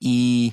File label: phoneme green, American; American IPA phonic